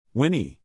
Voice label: "whinny", English, neural; animal sounds in english; Stephen

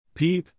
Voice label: "peep", English, neural; animal sounds in english; Baby chickens; Stephen

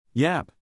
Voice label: "yap", English, neural; animal sounds in english; Stephen

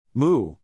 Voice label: "moo", English, neural; animal sounds in english; Cows; Stephen

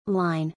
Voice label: "line" (US); Salli; American English; queue (UK)

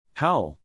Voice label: "howl", English, neural; animal sounds in english; dogs (and wolves); Stephen

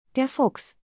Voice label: neural, German; Vicki

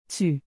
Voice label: "tu", French; Québécois French; (you)

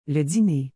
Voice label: "le dîner", Québécois French

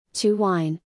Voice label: "to whine", English; звуки животных на английском; Salli

